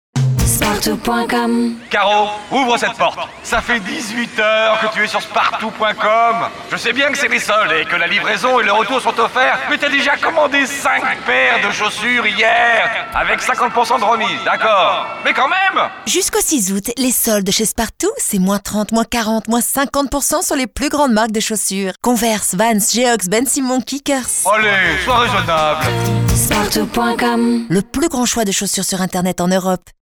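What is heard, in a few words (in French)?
signature clin doeil, voix off; Complice; Pub Radio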